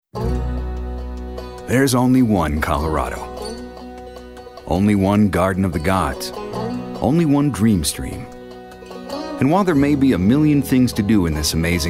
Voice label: Folksy

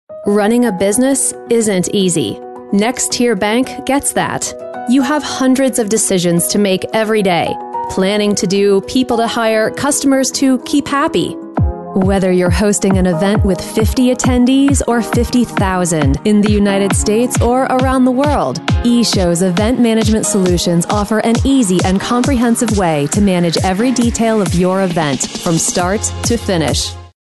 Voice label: Conversational

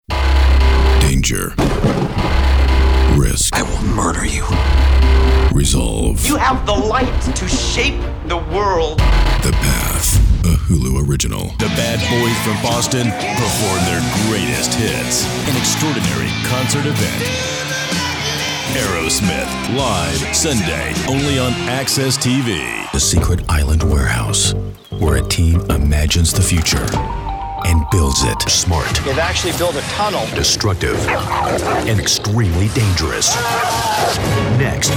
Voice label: TV Promo

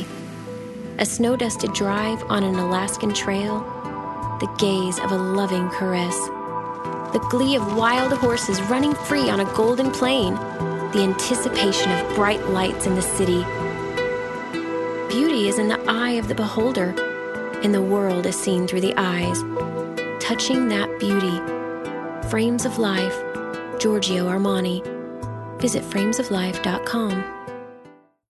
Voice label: Soft Spoken

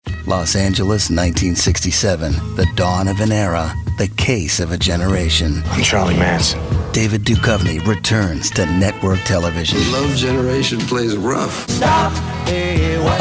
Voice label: TV Promo

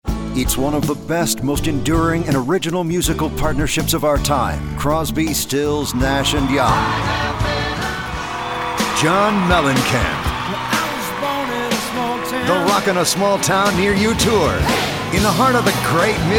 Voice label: Concert Promo

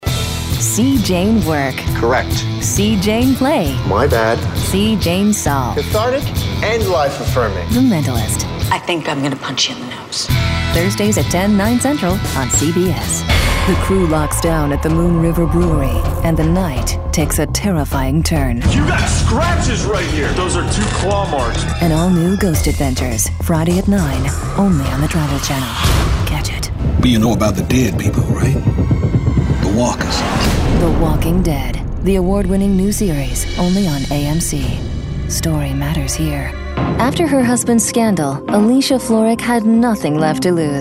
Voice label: TV Promo

